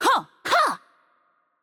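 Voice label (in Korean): Change Player, Korean; ko KR; VO; bloom minigame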